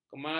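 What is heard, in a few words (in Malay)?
"kemaruk", Malay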